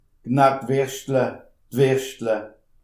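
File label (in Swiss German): "knack", Alsatian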